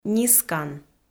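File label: "нискан", Komi-Zyrian, female; kpv audio dict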